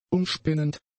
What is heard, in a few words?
"umspinnend", German; adjectives